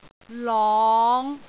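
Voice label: "long5", Cantonese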